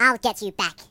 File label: "Revenge", voices; hedgewars